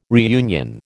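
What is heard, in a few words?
"reunion", American English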